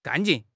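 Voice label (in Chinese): "干劲", Chinese; HSK6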